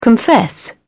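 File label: "confess", British English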